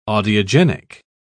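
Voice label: "audiogenic", British English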